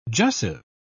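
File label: "jussive", British English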